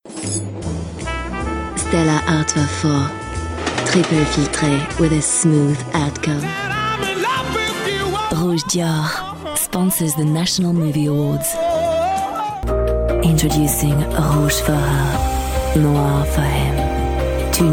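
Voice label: French English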